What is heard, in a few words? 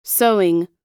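"sewing", English word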